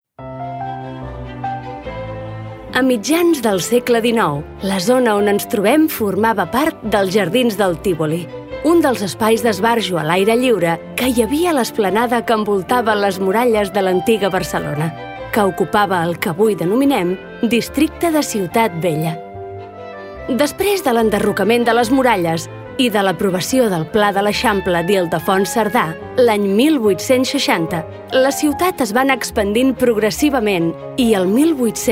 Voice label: female catalan audioguide comercial